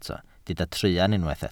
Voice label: "truan", Welsh